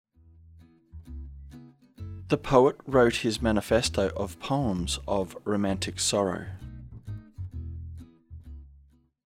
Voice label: slow, diphthong əʊ as in home; practice activity sentences